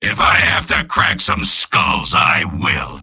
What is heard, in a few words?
soldier jeers; mvm